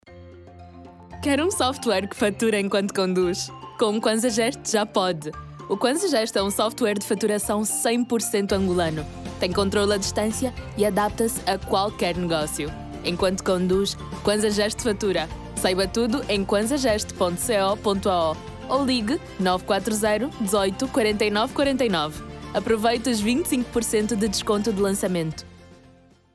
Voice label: Commercial PT